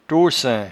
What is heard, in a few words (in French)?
"tossaint", patois jura